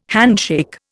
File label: "handshake", Indian